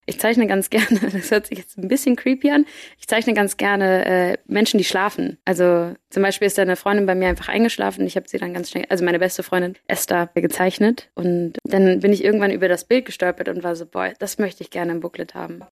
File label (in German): interview; booklet